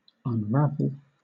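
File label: "unravel", British English; pronunciations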